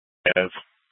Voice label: Police South Dispatch; Scttsdle

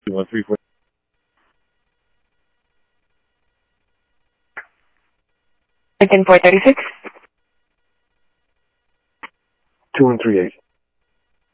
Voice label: Sheriff scanner audio; South Scottsdale; MCSO - EAST; Sheriff-EastCnty

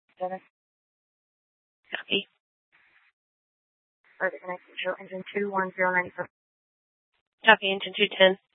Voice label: Mesa PD Fiesta Dispatch

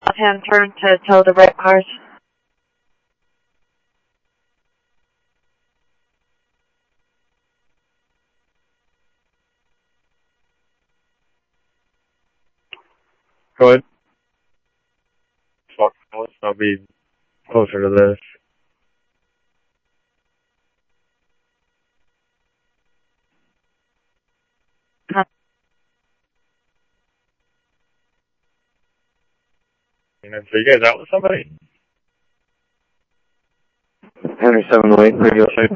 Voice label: Simulcast Police Dep, scanner audio trunk

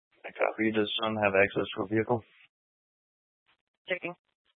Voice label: Scottsdale Police, Simulcast

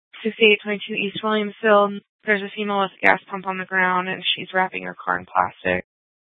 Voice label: Superstition Dispatch, Mesa PD

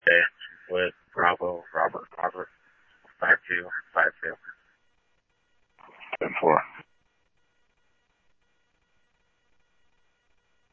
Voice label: Police, scanner audio trunk; H-Scottsdale

